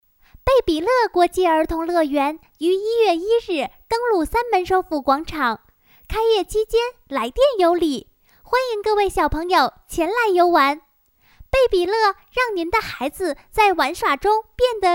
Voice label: girl childish voice, Chinese